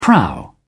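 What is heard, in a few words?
"prow", British English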